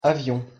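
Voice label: "avion", French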